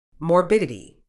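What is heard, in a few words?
"morbidity", British English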